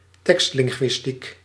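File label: "tekstlinguïstiek", Dutch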